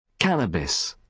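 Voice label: "cannabis", British English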